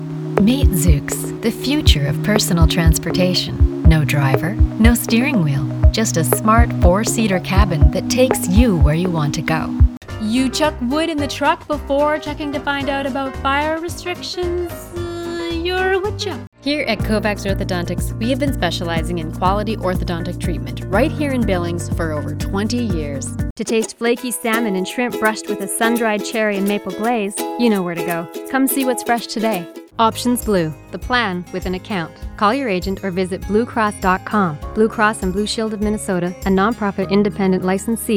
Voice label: Radio Spots

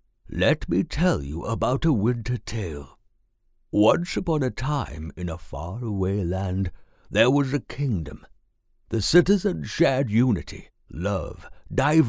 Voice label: Old Story Teller

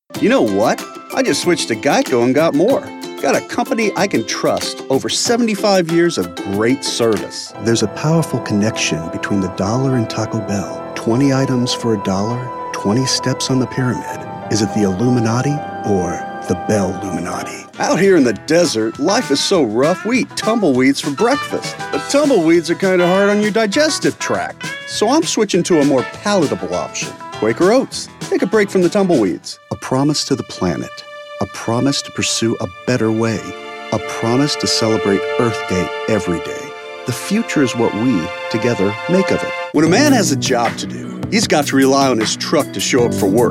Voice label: Voiceover

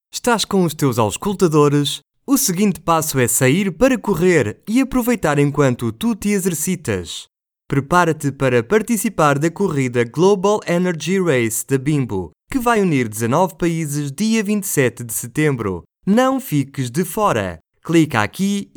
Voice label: Motivational

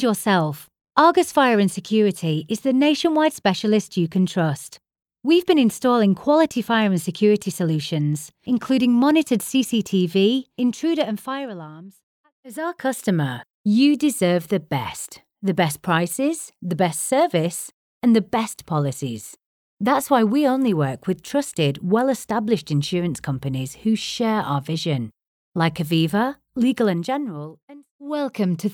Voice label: On Hold IVR

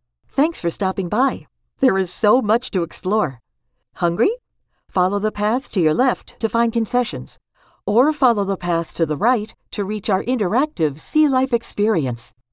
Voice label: Tour welcome, Female

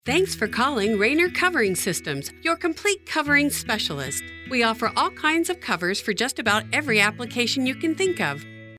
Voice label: On Hold With Music

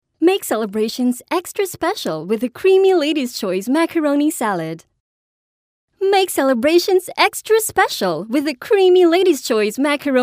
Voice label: Voice Over Takes

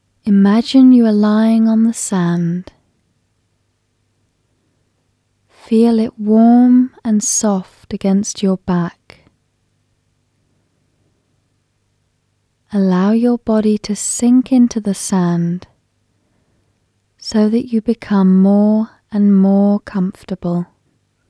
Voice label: Relax Visualistation

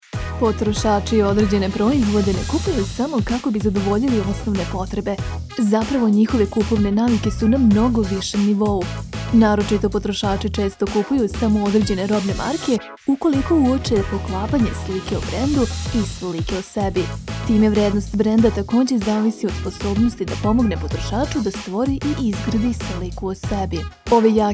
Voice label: Upbeat

